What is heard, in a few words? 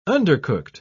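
"undercooked", British English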